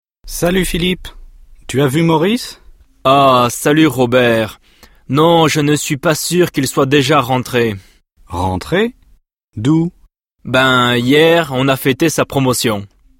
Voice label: dialog